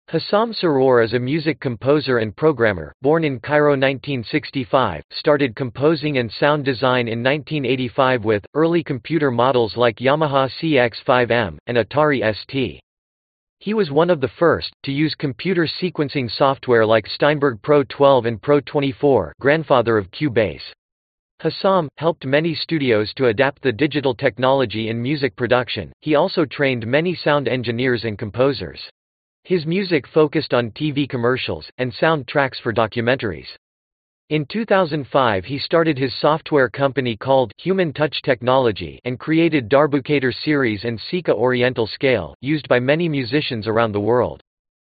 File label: narration